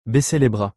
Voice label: throw in the towel french; baisser les bras; to lower the arms

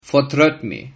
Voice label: sorry, Sylt Frisian

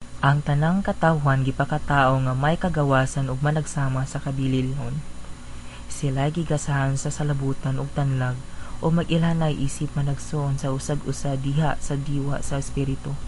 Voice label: cebuano

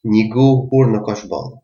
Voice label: albanian-gheg

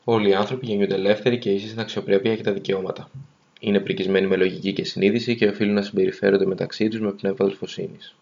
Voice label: greek

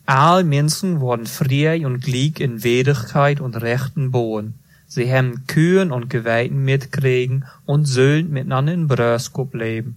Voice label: eastfrisian

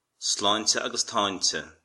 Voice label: cheers, irish

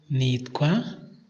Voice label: my name is, kinyarwanda

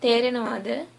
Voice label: do you understand, sinhala; තේරෙනවා ද; tērenavā da?

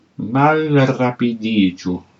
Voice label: slowly, esperanto

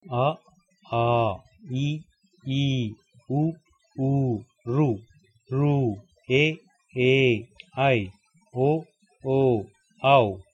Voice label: vowels, kannada

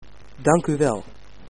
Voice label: thanks, dutch

